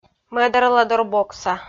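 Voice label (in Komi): "мӧдарладорбокса", Komi, female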